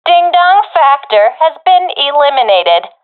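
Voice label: enemies left none, professional female; vo; Counter Strike Model Pack; CS:GO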